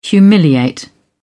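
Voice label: "humiliate", English, British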